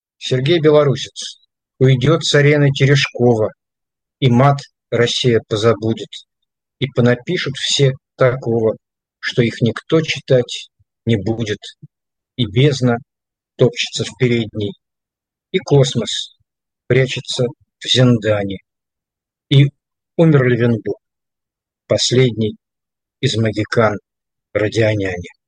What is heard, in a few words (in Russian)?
читает стихотворение; Уйдёт с арены Терешкова